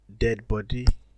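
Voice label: "deadbody", Nigerian Pidgin, male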